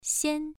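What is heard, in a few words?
"xian", Mandarin Chinese syllable, first tone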